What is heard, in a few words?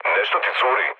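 Serbian tank damaged mood low; War Thunder; speech srb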